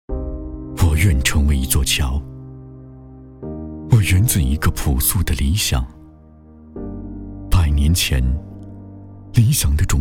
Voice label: 男 走心文案; 我愿成为一座桥

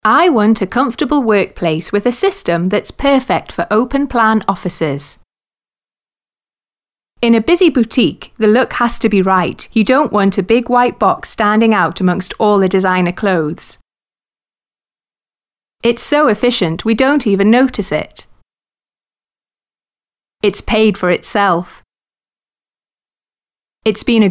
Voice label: 外籍英女 叙述 标准英式

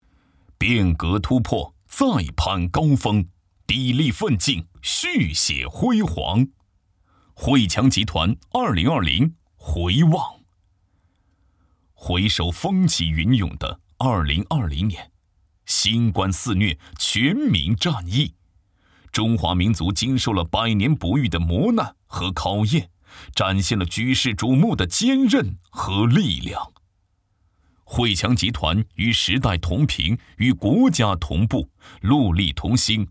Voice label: 男 专题汇报

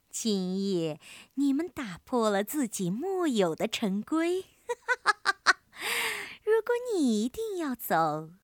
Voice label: 女 角色搞怪 小丑

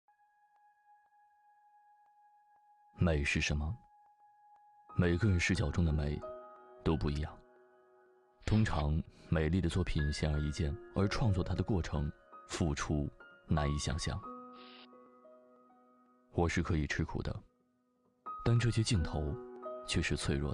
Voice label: 男 微电影广告 成熟内心独白

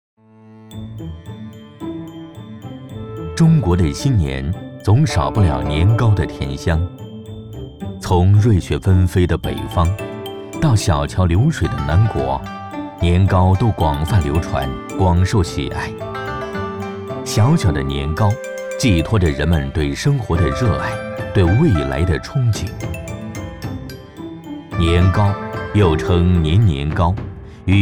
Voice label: 男 舌尖美食 年糕

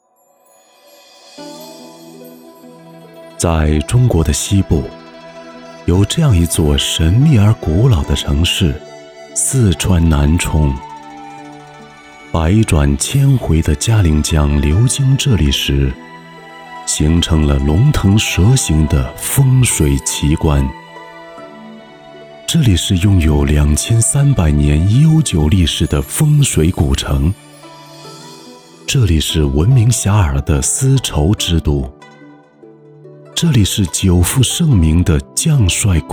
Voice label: 男 宣传片 城市 沉稳; 南充

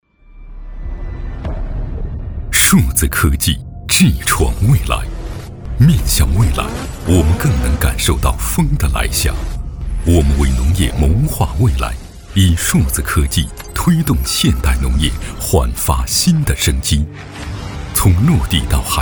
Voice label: 男 宣传 科技 片 高端质感; 中兴通讯

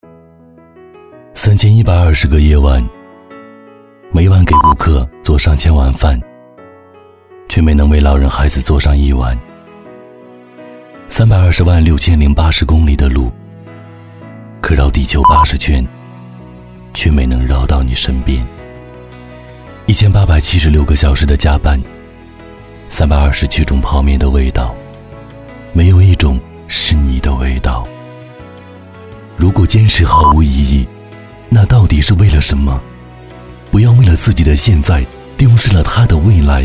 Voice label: 男,抒情走心

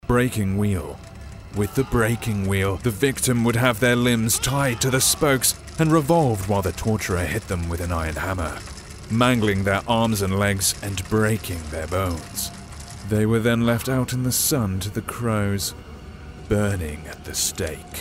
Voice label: 外籍英男 戏剧旁白 神秘低沉

